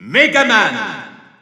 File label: French Announcer; Mega Man; SSBU